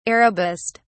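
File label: "arabist", British English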